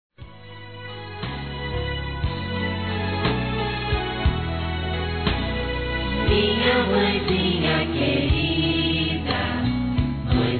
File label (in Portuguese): Dia Das Mães Para Mãe Feminino; Telemensagens 2017